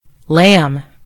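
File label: "lamb", US English; gcompris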